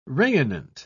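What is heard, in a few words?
"rayonnant", British English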